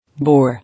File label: "Boar", English